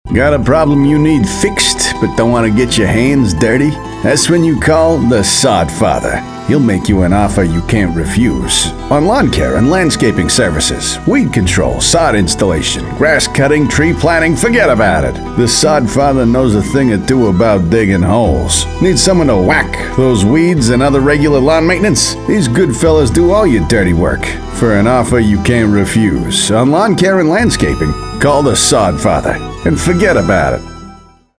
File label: Radio Campaign; Obvious Approach Radio Campaign